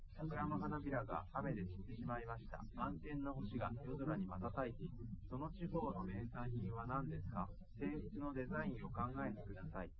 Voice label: male live rec; SiSEC08; SiSEC underdetermined